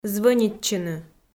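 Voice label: "звӧнитчыны", Komi, female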